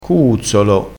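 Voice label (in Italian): "cuuzzolo", vocabolario dialettale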